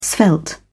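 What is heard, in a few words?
"svelte", British English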